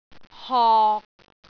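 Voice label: "hok6", Cantonese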